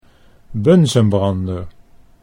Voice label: "bunsenbrander", Dutch